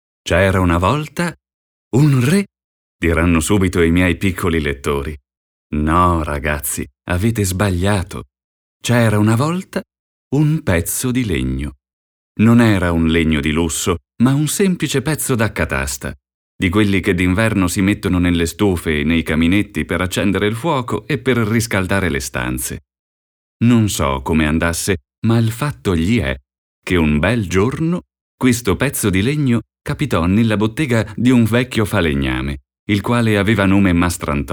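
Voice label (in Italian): Favola, voci maschili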